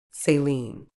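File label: "saline", medical English, British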